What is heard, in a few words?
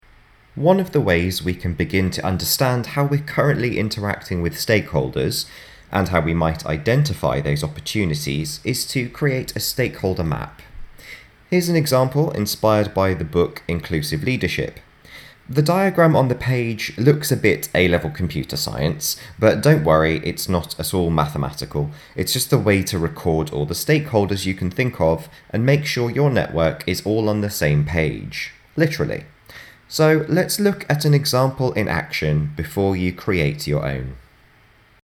Narration; Radius